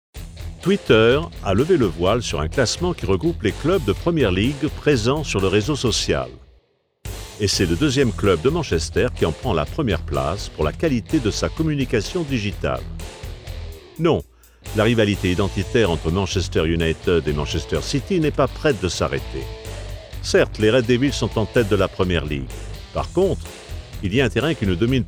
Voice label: documentary soccer

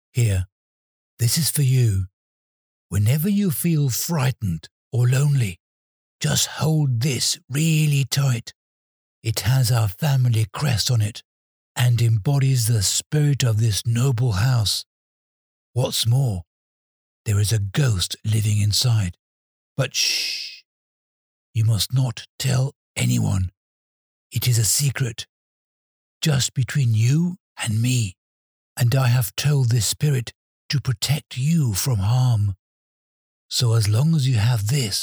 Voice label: Fatherly fantasy

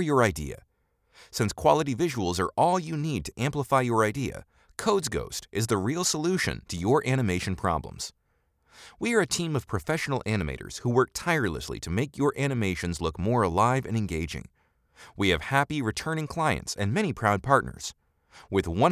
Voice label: Explainer

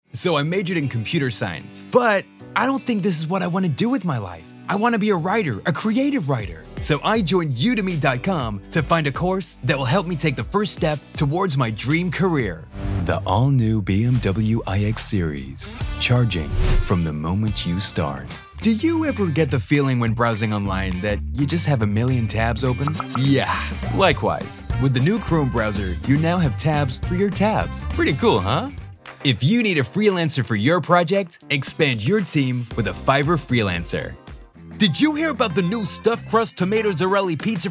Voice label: US Commercial

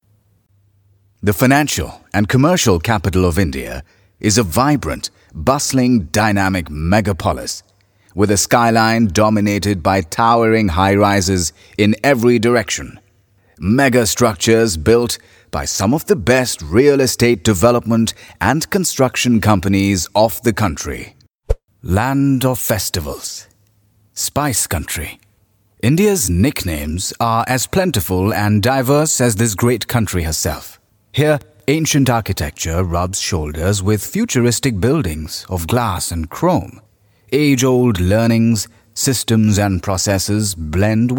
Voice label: Corporate Narration

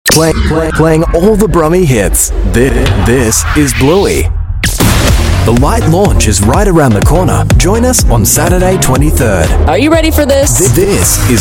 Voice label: Radio Imaging Soft

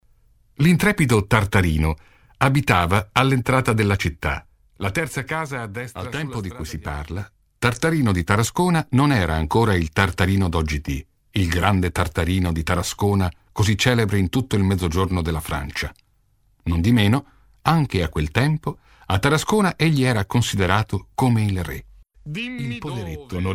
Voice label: audiobook

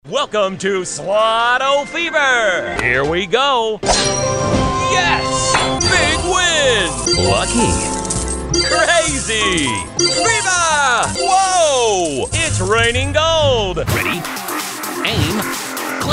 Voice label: High Energy Gaming

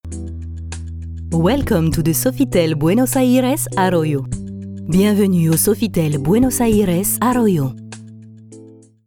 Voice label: IVR Bilingual; Sofitel; Buenos Aires Arroyo

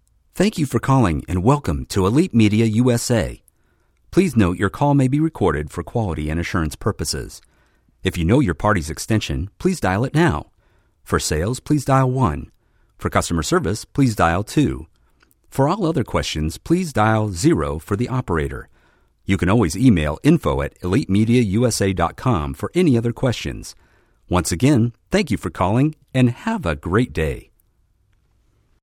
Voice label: Telephone Message

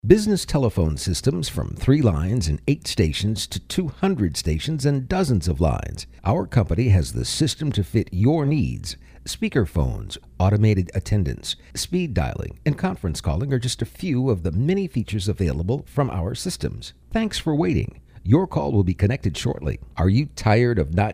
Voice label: ON HOLD

